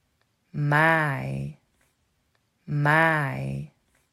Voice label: maai maai, Dutch